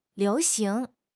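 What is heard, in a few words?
"liu2 xing2", Mandarin Chinese; HSK; HSK4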